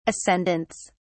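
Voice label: "ascendants", British English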